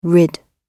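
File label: "rid", British English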